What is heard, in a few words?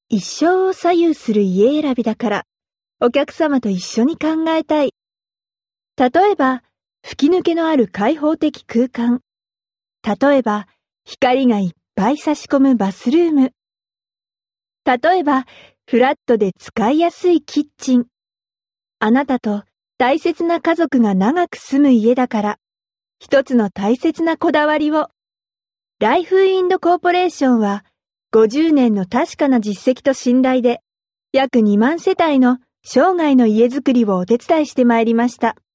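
Japanese, female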